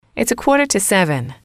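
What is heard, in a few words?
its a quarter to seven, English, female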